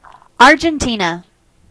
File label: "argentina", en; gcompris-data; geography